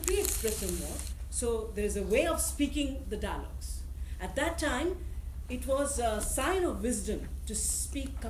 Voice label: India, Punjabi; asia

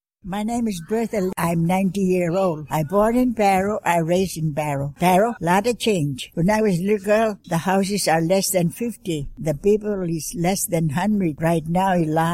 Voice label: United States Barrow Alaska female 90

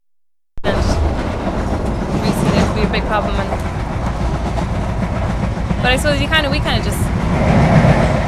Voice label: Ireland Dublin White Females 20s 30s

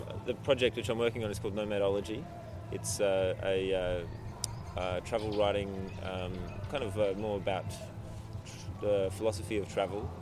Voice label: Darwin Australia YAWM Writer; australia-oceania

